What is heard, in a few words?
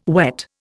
"wet", Indian